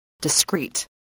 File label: "discrete", english spelling